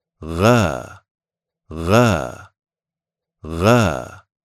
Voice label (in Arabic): حرف الغين حركة الفتح